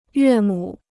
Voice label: "岳母", Chinese

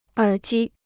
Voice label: "耳机", Chinese

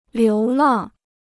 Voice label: "流浪", Chinese

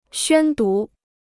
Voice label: "宣读", Chinese

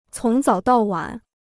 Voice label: "从早到晚", Chinese

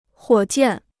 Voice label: "火箭", Chinese

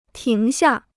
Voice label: "停下", Chinese

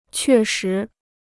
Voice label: "确实", Chinese

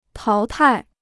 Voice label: "淘汰", Chinese

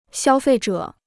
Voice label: "消费者", Chinese

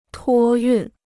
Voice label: "托运", Chinese

